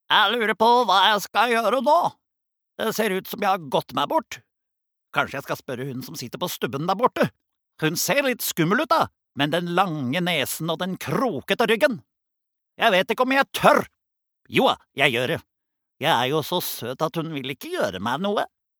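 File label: no dub character, Norwegian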